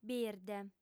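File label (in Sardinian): "birde", female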